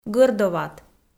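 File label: "гӧрдоват", Komi, female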